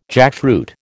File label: "jackfruit", American